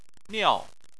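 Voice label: "niao" fourth tone, Chinese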